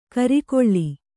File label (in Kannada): "ಖರೆಗೊಳ್", Kannada